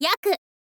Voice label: "yaku", info girl